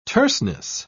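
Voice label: "terseness", English, British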